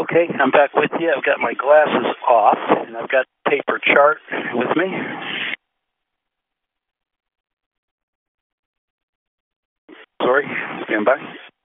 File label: Space to Ground; Expedition 39